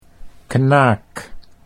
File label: "knaak", Dutch